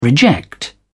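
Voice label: "reject", British English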